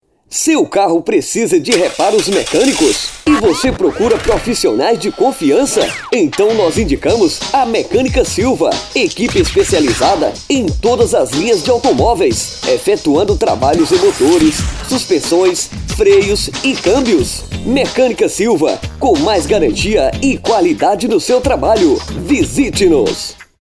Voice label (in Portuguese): locutor; mecanica silva